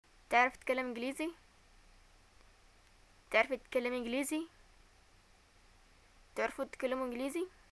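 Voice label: do you speak English, Yemeni arabic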